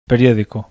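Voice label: "periodico", Spanish, bizkaia; acento lexical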